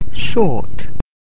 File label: "short", English